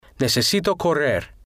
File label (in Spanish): necesito correr, Spanish